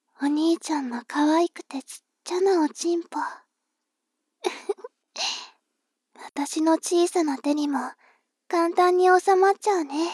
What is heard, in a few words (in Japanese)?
妹 台詞